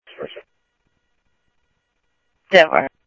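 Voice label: Lakes Sheriff Lake Patrol, scanner audio trunk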